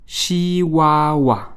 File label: "Chihuahua", French